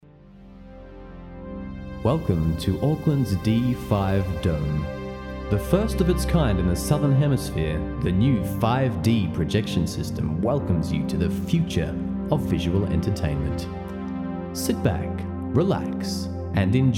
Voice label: Smooth